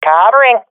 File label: covering friend, swat fem; vo; Counter Strike Model Pack; CS:GO